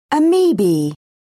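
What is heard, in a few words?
"amoeba", English, British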